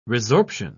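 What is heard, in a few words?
"resorption", British English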